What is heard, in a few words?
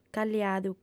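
"calliadu", Sardinian, female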